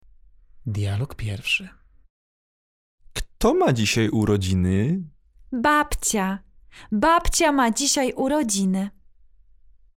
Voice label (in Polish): dialog